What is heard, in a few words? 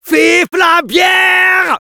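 cheers, French; Medic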